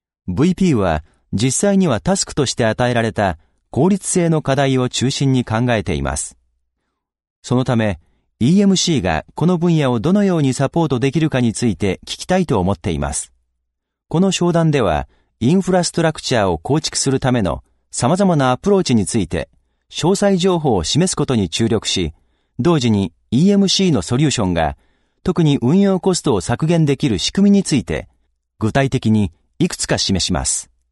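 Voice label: Japanese, male, various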